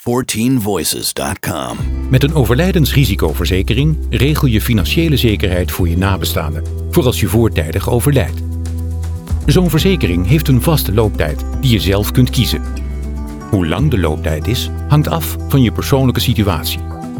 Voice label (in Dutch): narratief